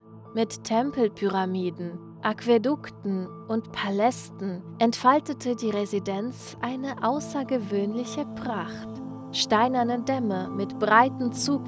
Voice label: german narration